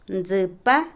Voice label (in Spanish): mono, Otomí, mujer; Animales; INALI